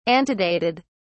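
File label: "antedated", British English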